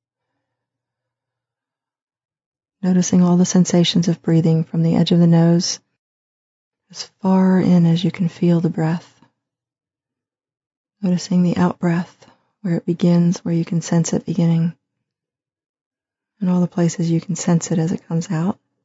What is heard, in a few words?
guided sitting meditation; caminomedicalgroup; mindfulness